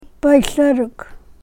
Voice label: "pay saruk", karuk